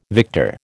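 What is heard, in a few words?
"victor", American English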